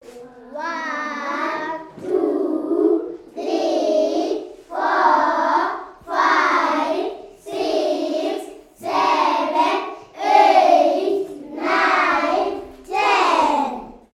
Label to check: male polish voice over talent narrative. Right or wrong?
Wrong